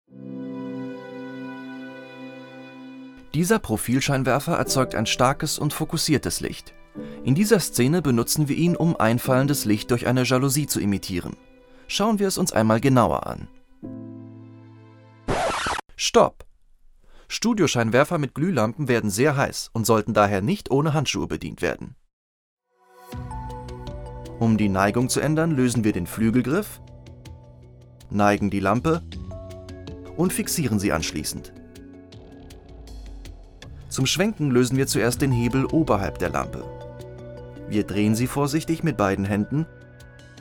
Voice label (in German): Erklärvideo